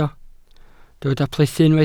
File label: "plethu", Welsh